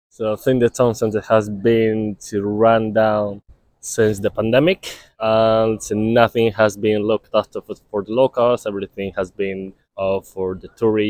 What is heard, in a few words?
voxpop